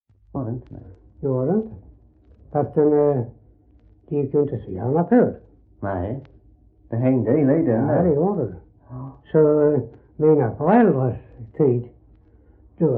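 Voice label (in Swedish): Röstånga Skåne, dialekter svenska sagor; Folkminnen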